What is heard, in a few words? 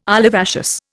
"olivaceous", Indian